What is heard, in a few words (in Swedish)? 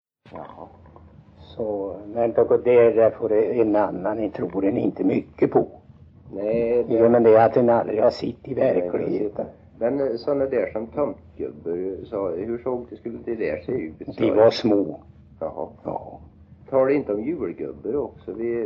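Kroppa Värmland, dialekter; Folkminnen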